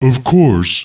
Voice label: acknowledge, ogre; ogre-mage